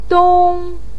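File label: "dong" first tone, Mandarin Chinese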